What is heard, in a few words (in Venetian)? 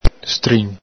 "strin", Veneto; ITA: pungente, aspro; el Galepin